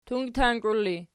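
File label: "tungtank" ergative, Archi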